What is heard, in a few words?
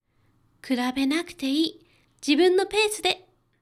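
female; 比べなくていい、自分のペースで。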